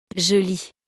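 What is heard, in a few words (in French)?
"je lis", French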